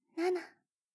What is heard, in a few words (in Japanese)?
通常カウント, ASMR